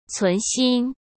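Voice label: "存心", Mandarin Chinese; chinese-flashcards; hsk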